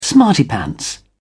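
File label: "smarty pants", English, British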